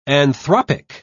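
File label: "anthropic", British English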